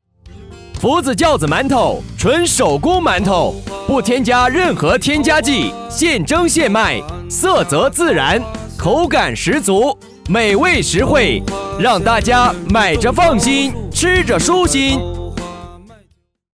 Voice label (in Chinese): 男 促销 纯手工馒头 穿透力版